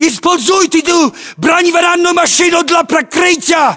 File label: order cover armored vehicle, ru; localized english